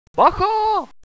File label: get down, hostage reactions